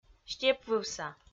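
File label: "степвывса", Komi, female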